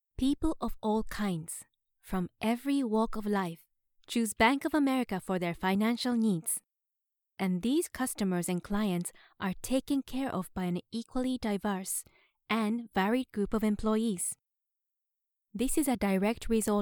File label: Anchor; Employees with Disabilities